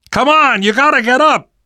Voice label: revive friend loud, namvet, survivor voice; Left 4 Dead 2; left4dead2 dlc3